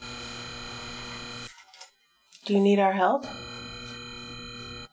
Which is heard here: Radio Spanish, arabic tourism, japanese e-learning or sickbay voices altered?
sickbay voices altered